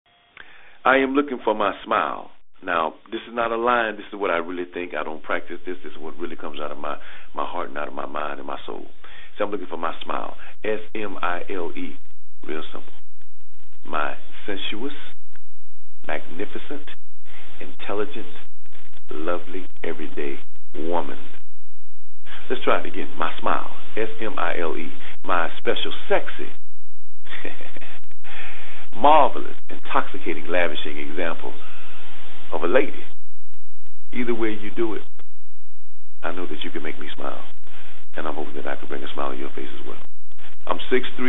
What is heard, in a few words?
Guy